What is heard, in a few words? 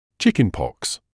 "chickenpox", medical english uk